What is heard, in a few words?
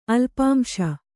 "ಅಲ್ಪಾಂಶ", Kannada